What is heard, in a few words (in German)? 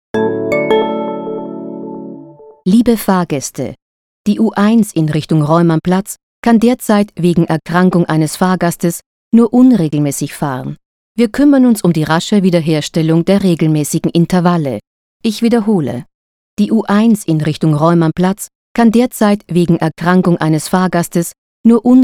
stoerungsdurchsage